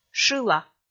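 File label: "шыла", Komi, female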